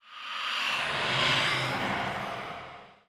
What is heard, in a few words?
enchantress pain